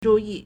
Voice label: "zhu4 yi4", Chinese